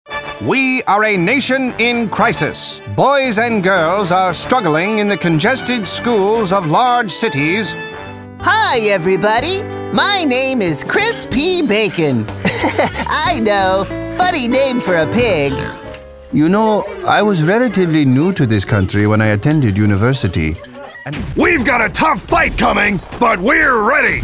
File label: Character